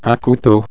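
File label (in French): "couteaux", French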